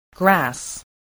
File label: "grass", voice